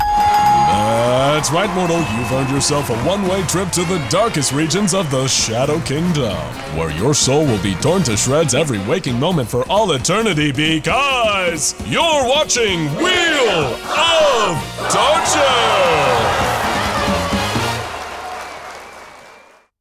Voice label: Animation, voice over